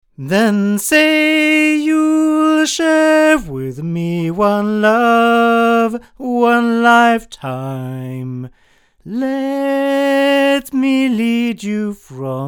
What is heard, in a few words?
male